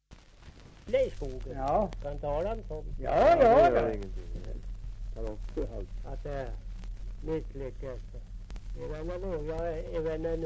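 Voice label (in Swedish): Björnlunda Södermanland, dialekter; Folkminnen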